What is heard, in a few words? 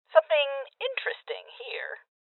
Voices Loot Spotted Female; rebel cops 2019 gamerip